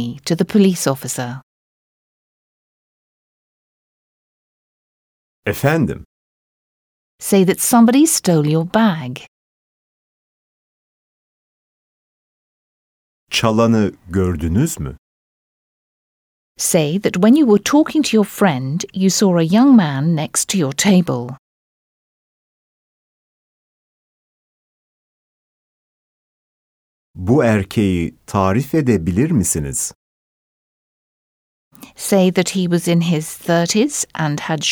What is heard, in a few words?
Speaking, Turkish